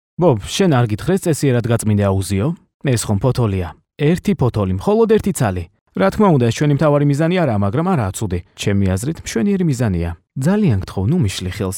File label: Georgian male